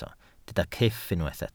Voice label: "cyff", Welsh